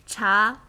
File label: "Cha", Chinese